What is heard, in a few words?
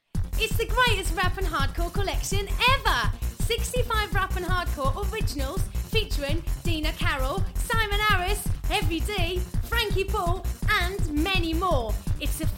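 German, English accent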